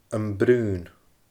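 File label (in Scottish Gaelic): "Am Braon", Scottish Gaelic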